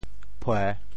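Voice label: "phue3", Teochew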